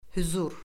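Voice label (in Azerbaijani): "hüzur", Azerbaijani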